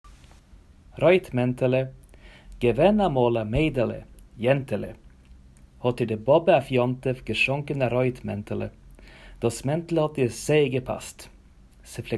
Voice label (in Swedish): Jiddisch rodluvan